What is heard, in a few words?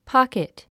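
"pocket", English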